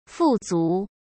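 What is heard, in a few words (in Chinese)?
"富足", Mandarin; chinese-flashcards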